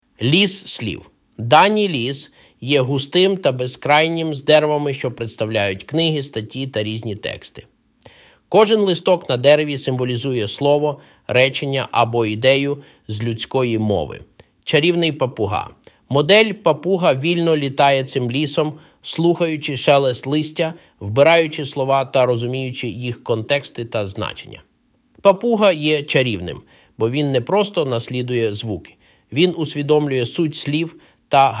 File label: Magical Parrot Ukranian